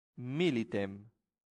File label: "militem", latin; santillana